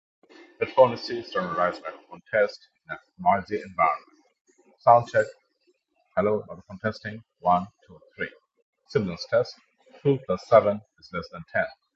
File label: noisy; Jbl tour pro 2